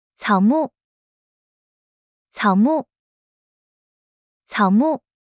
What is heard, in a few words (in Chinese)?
"草木", Mandarin; Traditional Chinese